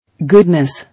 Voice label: "Goodness", English